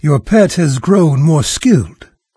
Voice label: pet level up, narrator; Fate Undiscovered Realms

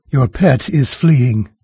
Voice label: pet flee, NARRATOR, en-US; Fate Undiscovered Realms

